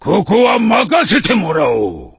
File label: voice, dubbing